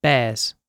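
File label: "bears", British English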